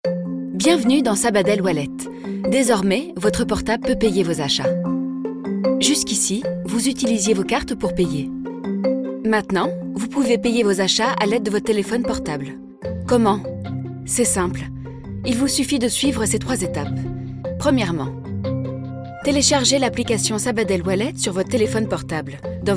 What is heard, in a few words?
female french bank comercial; ideasonora